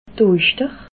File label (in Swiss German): "oischter", alsace